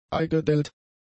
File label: "eigedellt", german; adjectives